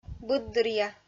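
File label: "быддыръя", Komi, female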